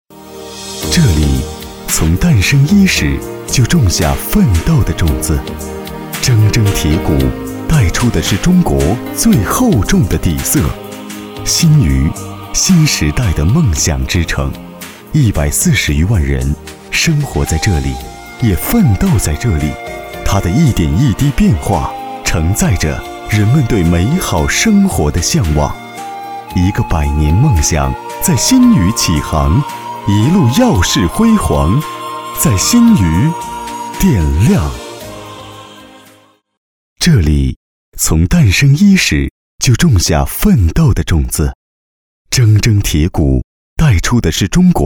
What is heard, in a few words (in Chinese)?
男国 专题 企业 装饰 浑厚